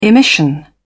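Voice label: "emission", British English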